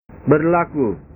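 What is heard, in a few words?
"berlaku", Indonesian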